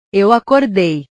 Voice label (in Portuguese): "eu acordei", portugues